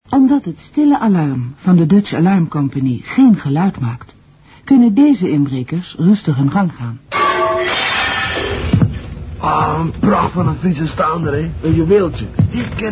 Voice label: commercial